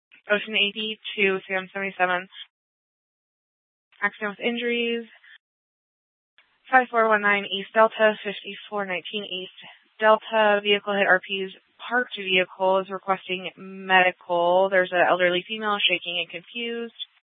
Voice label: Superstition Dispatch, Mesa PD

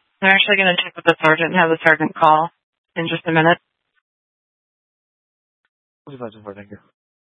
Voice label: Sheriffs Office, scanner

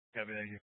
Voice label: Mesa PD Dispatch; 1 Fiesta Dispatch

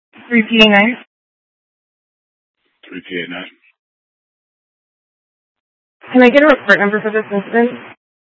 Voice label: PD Dispatch

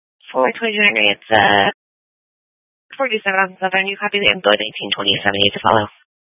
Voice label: Police Dispatch

